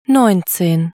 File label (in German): "neunzehn" deutsch